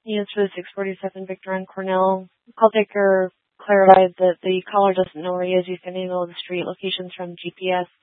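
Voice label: Tempe Police Department, scanner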